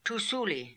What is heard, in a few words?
"Toosooly", pronounciations; Fourth Way; Beelzebub